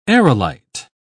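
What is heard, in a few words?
"aerolite", British English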